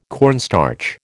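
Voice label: "cornstarch", American English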